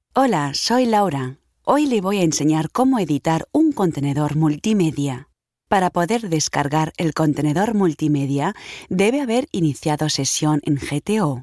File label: elearning, spanisch